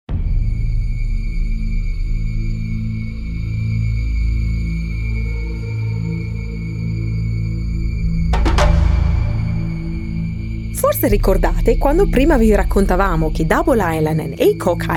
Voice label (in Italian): Rainbow Serpent Italian; Welcoming International Guests